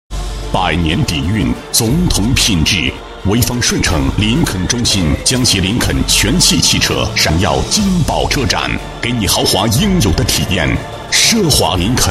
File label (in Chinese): 男 品牌, 国语男声配音; 林肯